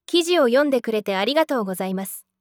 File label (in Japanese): 女性